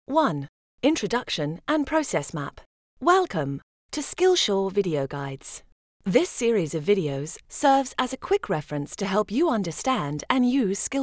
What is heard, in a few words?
E-learning UK